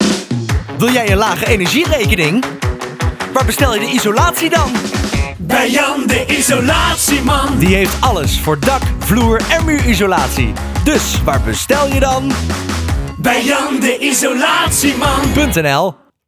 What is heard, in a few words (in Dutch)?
Voice Over Energierekening paniekerig; Jan De Isolatieman